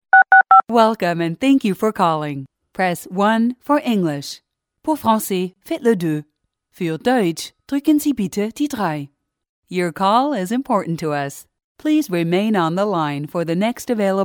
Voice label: Macdonald americ, woman, foreign